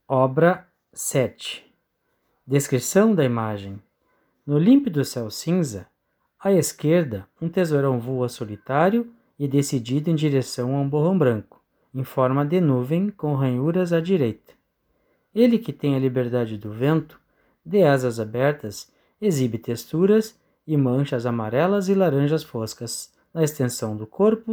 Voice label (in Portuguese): audiodescricao; Galeria virtual